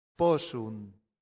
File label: "possunt", latin; santillana